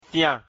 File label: "fyan", mina; mina-alphabet